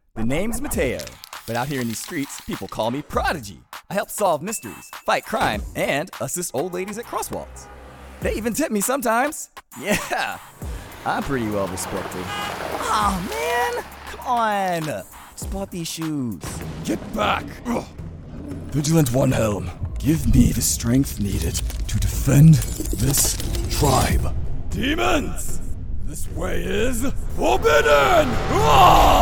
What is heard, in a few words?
character